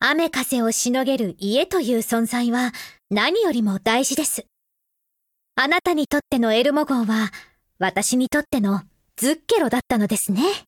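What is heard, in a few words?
affinity Japanese; Springfield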